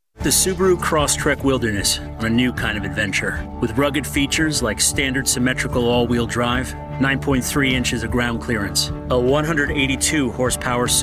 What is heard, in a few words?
Commercial